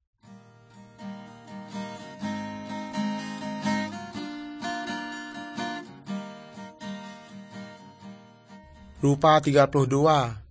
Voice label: good news, urak lawoi

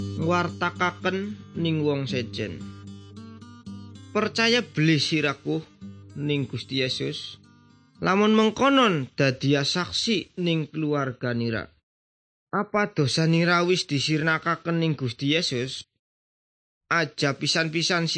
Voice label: good news jawa indramayu, javanese bible

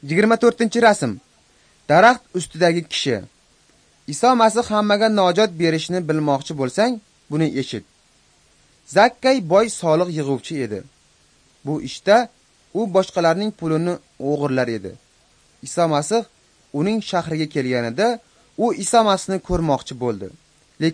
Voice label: uzbek northern

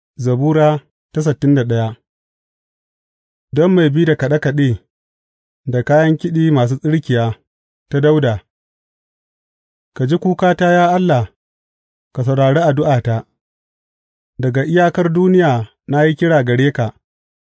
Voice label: hausa bible drama, psalms; hausa bsn nt drama

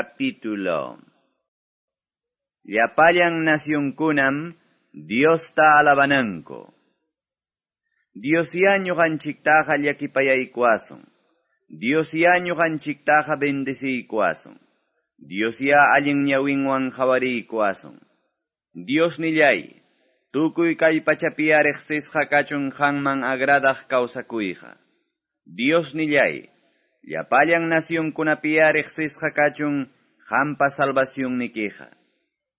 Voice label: salmos, quechua ayacucho

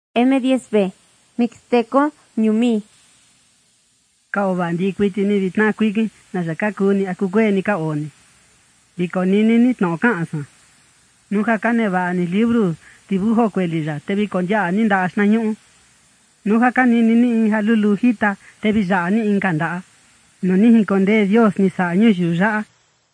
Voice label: mixtec diagnostic spanish mexico

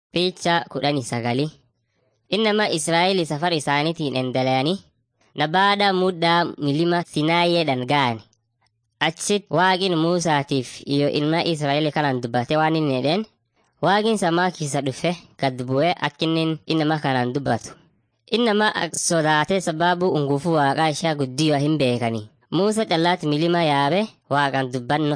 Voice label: afan munyoyaya; mighty men of god

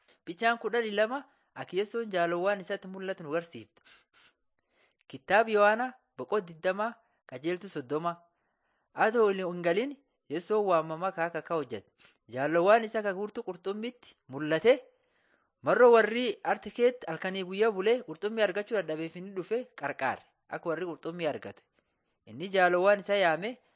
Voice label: borana sakuye

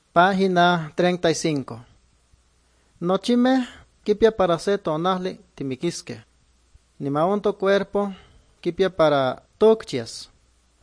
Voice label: good news, nahuatl guerrero chilapa

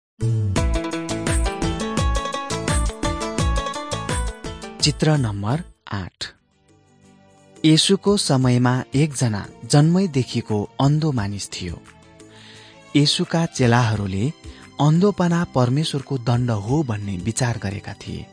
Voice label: jesus lord and saviour nepali

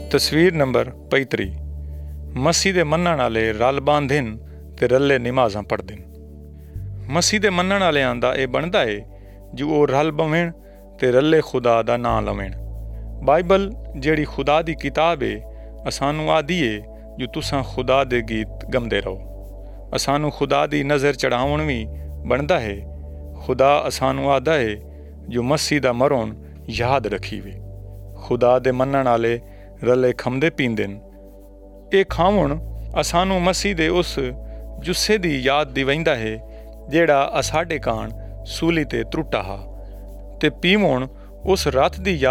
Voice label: saraiki jangli good news; picture 35 death

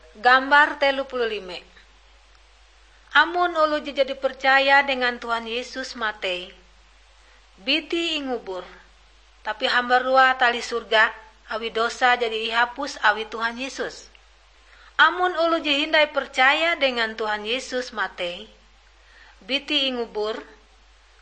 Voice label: kabar bahalap good news ngaju, drama

